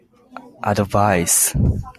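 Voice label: "advice", Japanese, male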